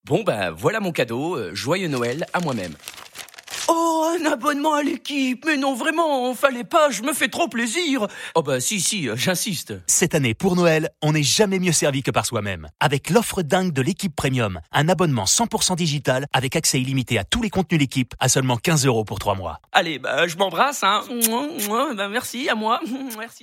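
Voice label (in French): voix comédie; LEquipe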